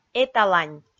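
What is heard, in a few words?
"эталань", Komi, female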